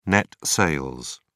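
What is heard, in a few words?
"net sales", British English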